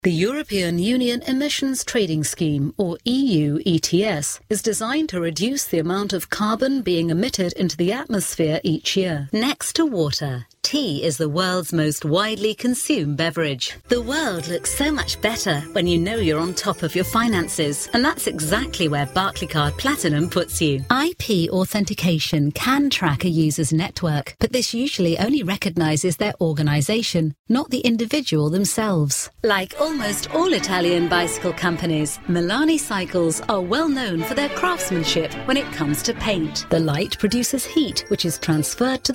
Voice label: uk corporate

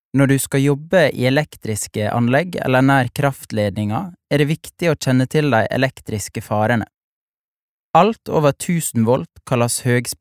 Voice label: no elearning

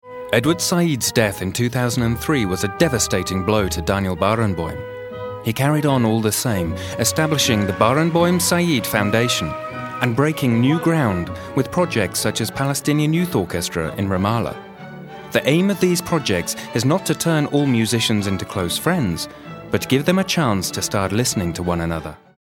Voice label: uk narration, mann; engelsk-uk

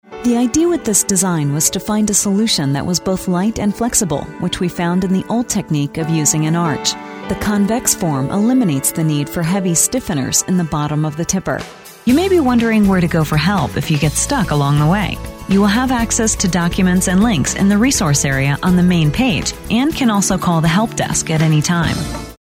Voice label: US corporate

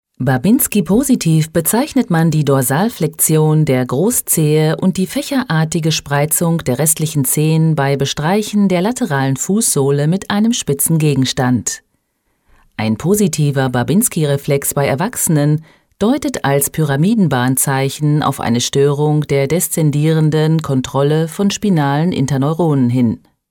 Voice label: de medical

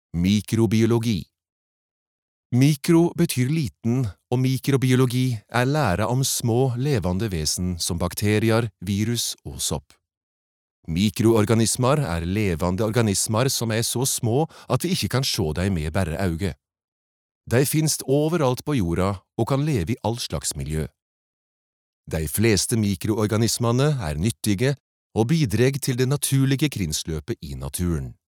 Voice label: no nynorsk

